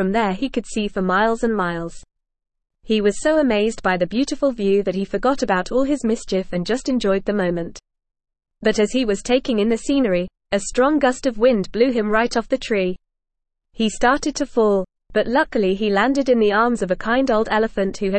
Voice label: ESL Short Stories for Kids NORMAL reading Duncan The Cheeky Monkey